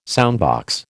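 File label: "soundbox", American English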